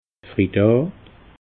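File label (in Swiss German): "fridaa", Alsatian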